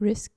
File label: "risk" dutch